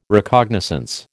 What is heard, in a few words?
"Recognizance", American